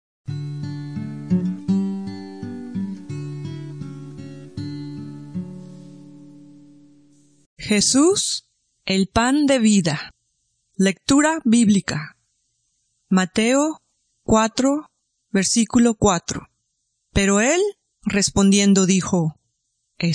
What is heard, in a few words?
devociones devotions spanish latin america